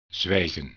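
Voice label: "zwijgen", Dutch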